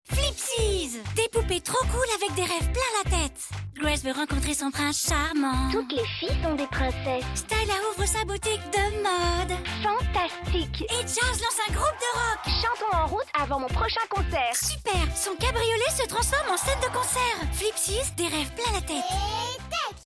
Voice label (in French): Pub TV; Vtech; Poupee flipsies; Grace